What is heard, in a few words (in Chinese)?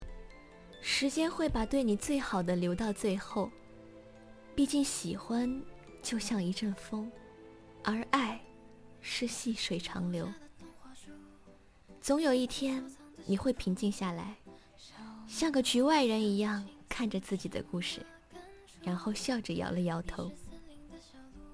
情感旁白